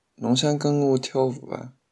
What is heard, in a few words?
dance shanghainese